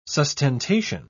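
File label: "sustentation", British English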